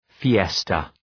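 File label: "fiesta", English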